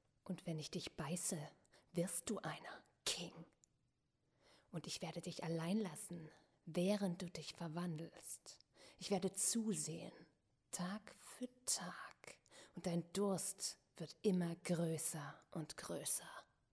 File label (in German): Synchron; Blade